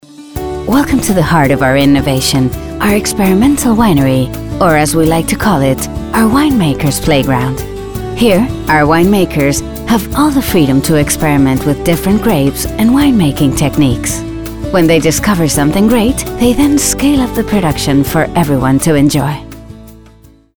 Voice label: Winery English

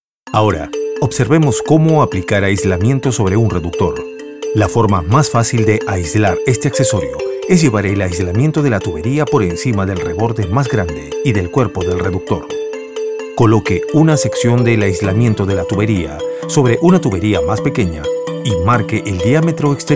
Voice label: Spanish Demo - Industrial

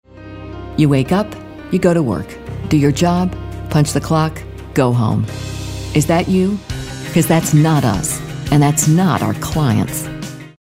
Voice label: Serious

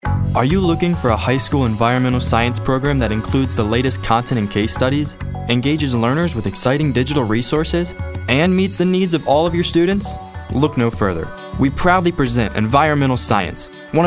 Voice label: narrative

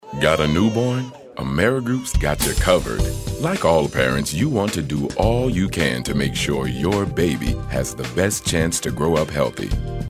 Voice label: friendly

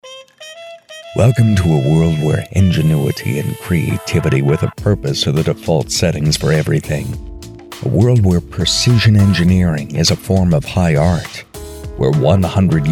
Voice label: cool classy smooth sophisticated

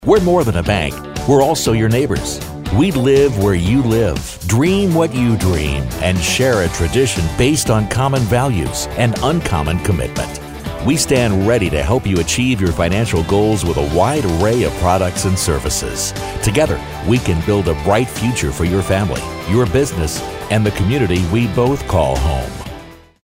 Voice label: announcer friendly

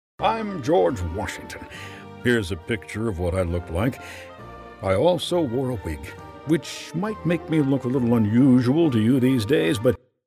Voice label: fathers impressions

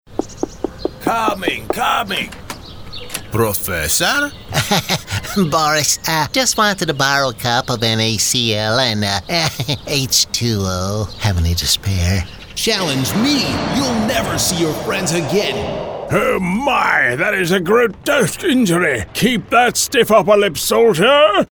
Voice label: Cartoon Voices

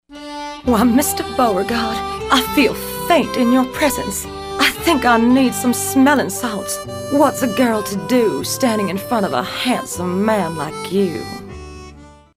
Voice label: southern

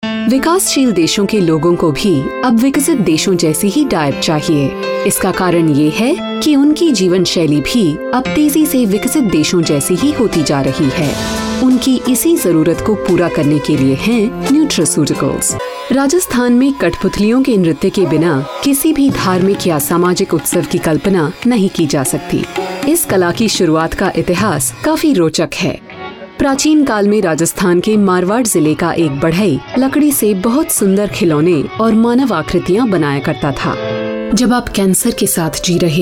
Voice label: Hindi Narration

